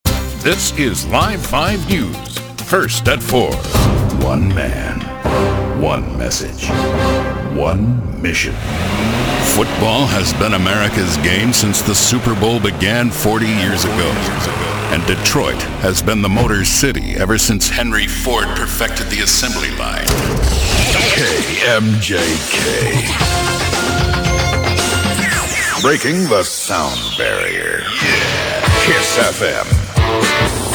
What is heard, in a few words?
Promo